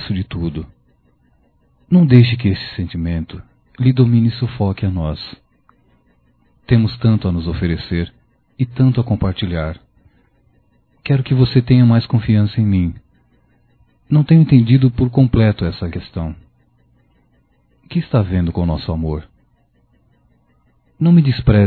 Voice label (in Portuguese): Ciúmes Masculino